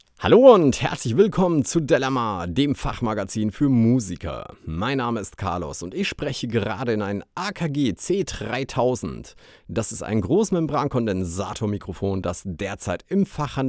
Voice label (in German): akg c3000 sprache maennlich